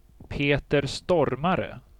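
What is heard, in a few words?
"Peter Stormare", Swedish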